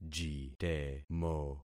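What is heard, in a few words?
"jitemo", concatenated syllables; Affixing experiment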